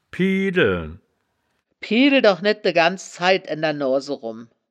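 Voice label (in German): "piedelen", wittlicher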